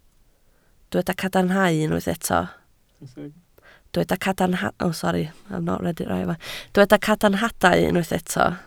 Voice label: "cadarnhadau", Welsh